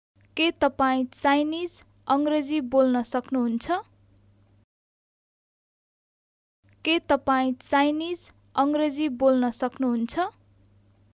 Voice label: Can you speak Chi-Eng Nepali

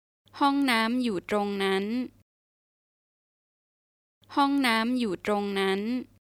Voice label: The washroom is over there Thai

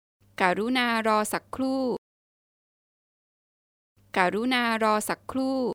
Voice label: Please wait for a while, Thai

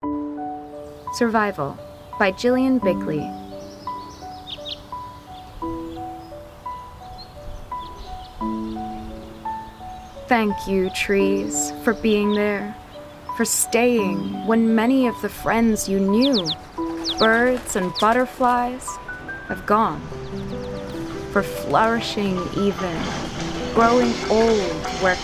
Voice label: poem

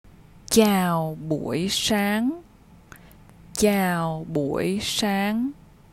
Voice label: Good morning, vietnamese; Greetings

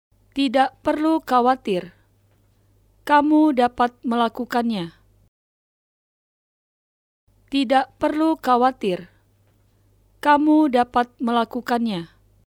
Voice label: Take it easy! (Name) can do it, Indonesian

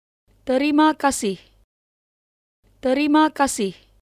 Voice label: "Thank you", Indonesian

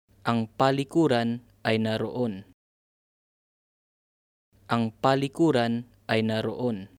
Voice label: The washroom is over there Tagalog

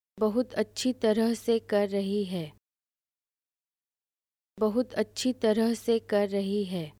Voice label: (Name) is doing well, Hindi, female

